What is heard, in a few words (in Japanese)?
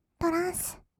トランス, kawaii voice; 1200 kawaii voice!!; 音楽ジャンル